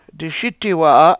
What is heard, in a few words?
"diSItiwa7a" I Went Down, Washo